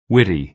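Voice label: "witty", American English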